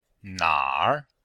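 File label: "nar3", Chinese; Cours-de-Chinois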